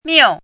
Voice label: "miu4", pinyin